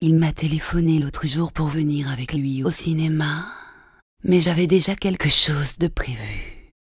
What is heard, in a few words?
sandwiches synth